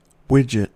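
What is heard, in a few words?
"widget", American English; pronunciations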